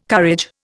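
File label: "courage", Indian English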